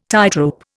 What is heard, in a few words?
"tightrope", Indian